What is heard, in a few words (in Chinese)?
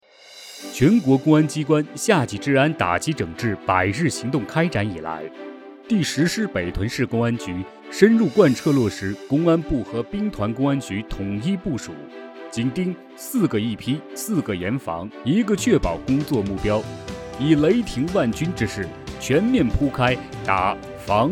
宣传片; 公安部监督组